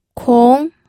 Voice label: "kong2", pinyin